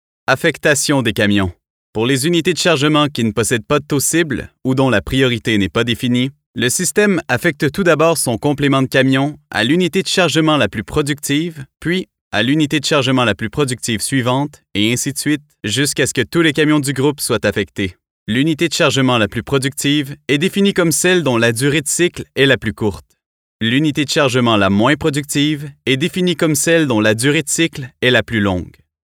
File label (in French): voix de e learning et formation en ligne fr qc naturel sobre pause informatif; adulte medium